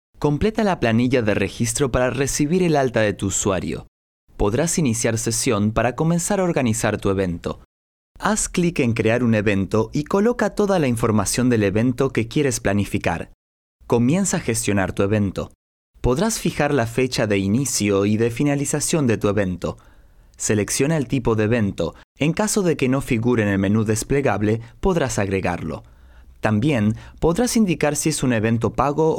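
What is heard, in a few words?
Latin American Spanish male explainer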